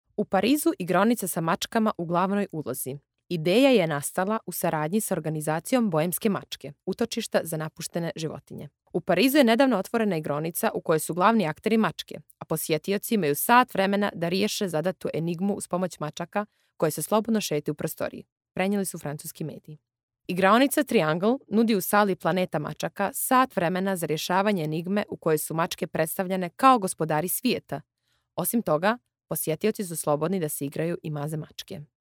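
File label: Montenegrin, female; montenegro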